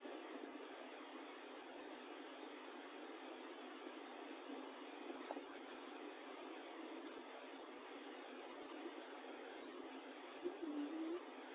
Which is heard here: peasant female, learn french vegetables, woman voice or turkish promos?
woman voice